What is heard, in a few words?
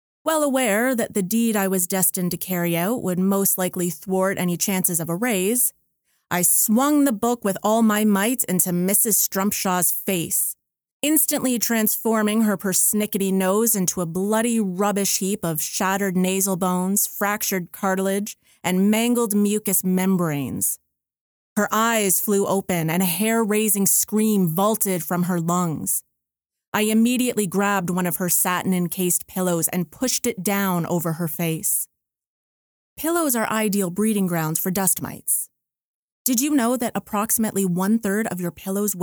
Gothic Horror First Person